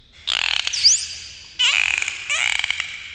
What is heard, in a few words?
happy; fertig classic games; bernie